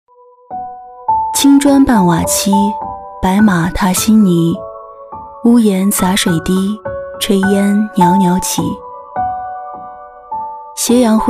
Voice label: 女 走心旁白 情感语录感

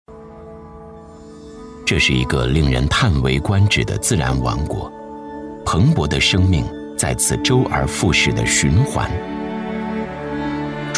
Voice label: 男-纪录片-纪实讲解感, 磁性低沉 质感磁性; 大美绵州 熊猫家园

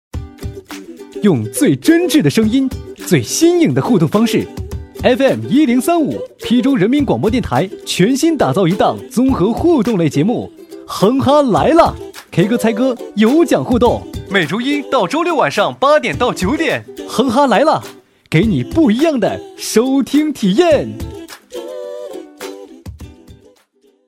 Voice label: 男 电台广告 广播活力; fm1035《哼哈来啦》; 轻松活泼

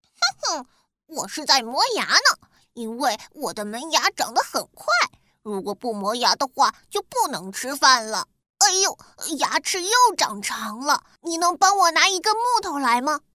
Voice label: 女 儿童配音 卡通人物 男童; 可爱; 仓鼠弟弟

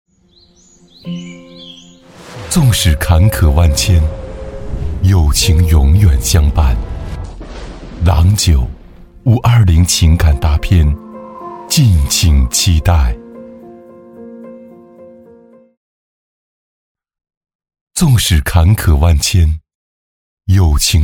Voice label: 男, 酒广告, 大气深情; 大气舒缓 高端大气

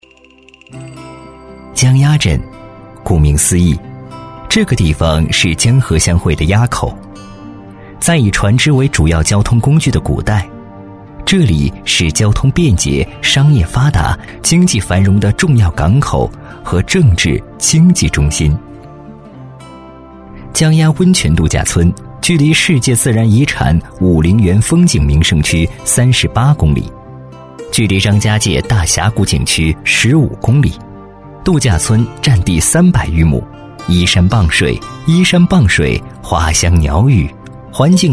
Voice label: 男 景区宣传 亲切; 张家界温泉度假村; 年轻多变; 质感磁性

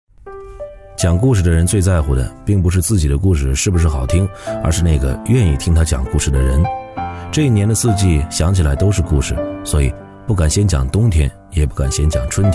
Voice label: 男 鸡汤散文 自然快速; 磁性稳重 素人自然

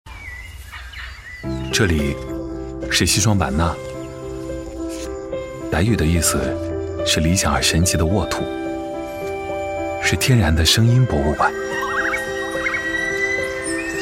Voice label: 男 【品质讲述】 广告, 磁性沉稳 高端大气; 华为FreeBudsPro 2; 男139-【品质讲述】华为FreeBudsPro 2广告

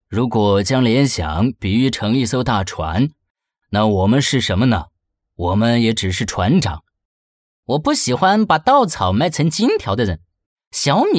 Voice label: 男, 人物模仿, 声音百变 沉稳大气; 模仿十位企业家

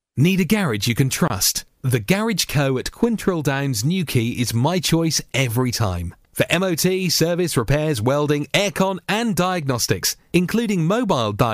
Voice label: 英式男声

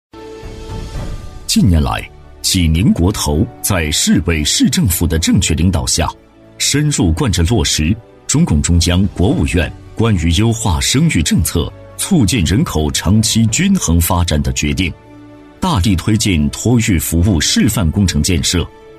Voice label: 男 企业宣传片 大气磁性; 高端厚重; 大气浑厚; 济宁国投托育

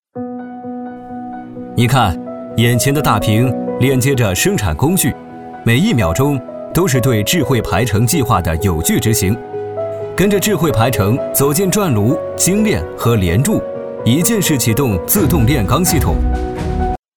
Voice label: 男 解说 沉稳持重; 浑厚有力 沉稳大气

